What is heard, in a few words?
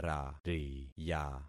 "rariya", concat syllables; Affixing experiment